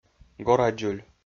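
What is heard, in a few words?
"горадзуль", Komi, male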